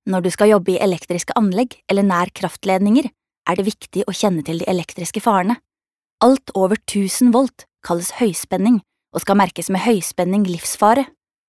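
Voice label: no elearning, Norwegian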